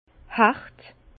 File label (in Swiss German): "hert", Bas Rhin; vin; dur